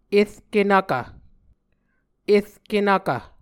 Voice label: "erkenvkv", Muscogee; Mvskoke Words with Voicing Consonants; Onvkv Ohhvyayices